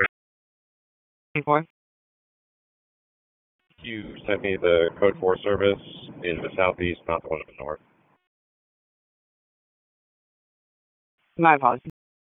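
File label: Police District Dispatch; Raleigh; Northeast; p25; wake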